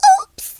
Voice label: "oops", Southern Belle; speech

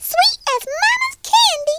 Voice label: collect, Southern Belle; speech

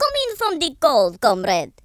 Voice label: boring, Russki

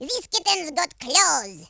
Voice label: "fatality", French; speech

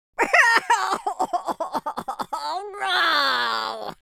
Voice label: sad, Pirate

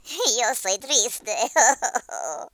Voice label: sad, speech; Worms3D; Spanish Inquisition

